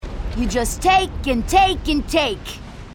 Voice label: female marine